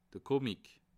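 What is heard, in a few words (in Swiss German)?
"comic", Bas Rhin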